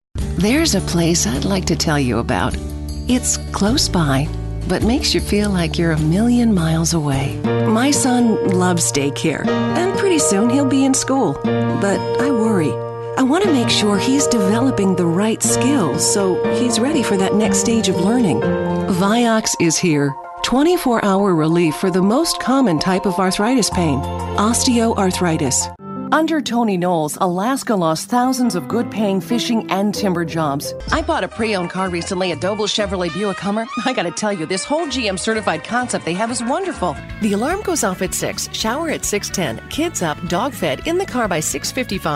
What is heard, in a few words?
Commercial